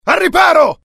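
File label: fear grenade, IT; mohaa; dialogue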